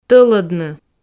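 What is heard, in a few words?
"тӧлӧдны", Komi, female; audio dict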